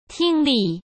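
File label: "听力" Mandarin Chinese; hsk; chinese-flashcards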